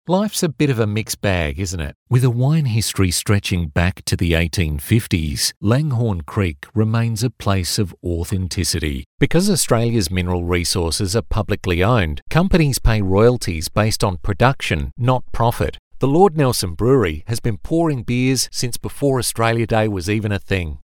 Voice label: locutor australiano english australian voiceover

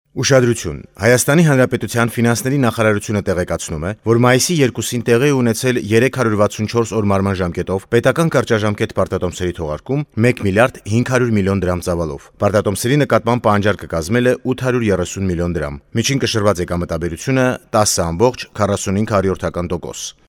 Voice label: armenian voiceover locutor armenia

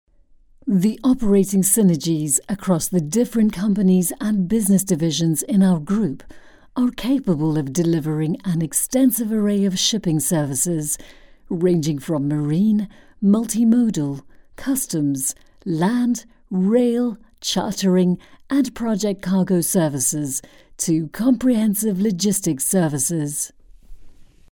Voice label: locutora english voiceover, locutora de Sudáfrica; locutora inglesa, English voice over

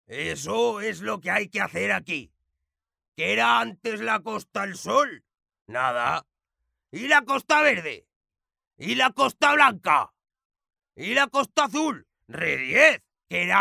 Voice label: imitador locutor voiceover; locutor imitador de Paco Martínez Soria

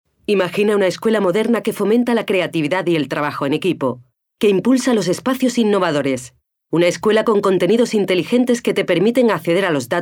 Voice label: locutora spanish voiceover

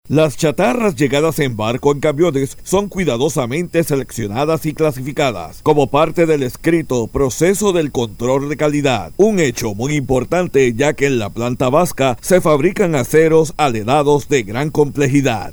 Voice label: locutor puerto rico puerto rican voiceover; locutor de Puerto Rico

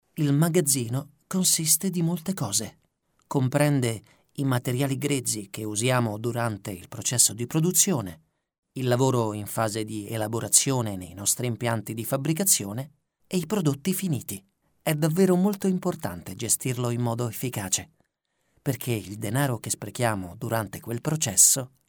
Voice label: locutor italiano italian voiceover; locutor de Italia

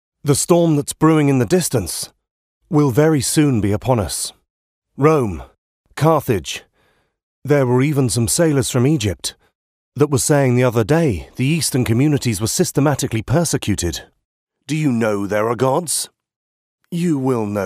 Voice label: locutor ingles english voiceover, locutor inglés; locutor Inglaterra

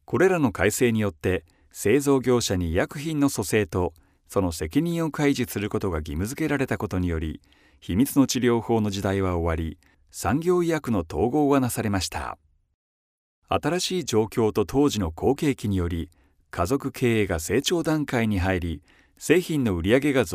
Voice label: locutor japones japanese voiceover

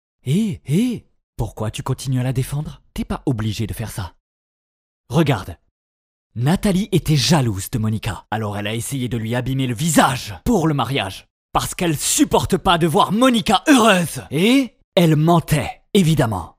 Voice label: french voiceover locutor francia; locutores franceses

